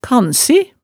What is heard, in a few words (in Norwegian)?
"kansi", hemsedalsmaalet tale